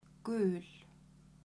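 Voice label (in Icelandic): "gul", Icelandic, female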